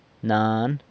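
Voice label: "nan", mother, San Lucas Quiaviní Zapotec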